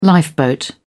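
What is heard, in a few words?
"lifeboat", British English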